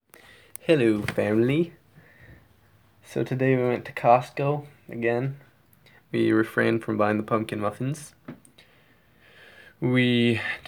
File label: memo voice